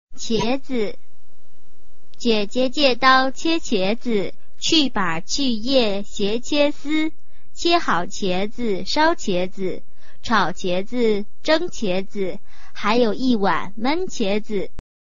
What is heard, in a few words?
chinese tongue twister